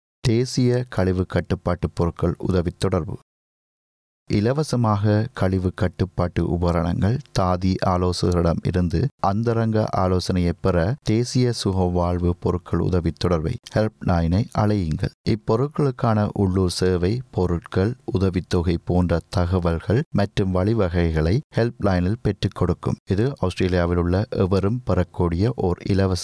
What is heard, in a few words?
Tamil; Continence Foundation; National Continence Helpline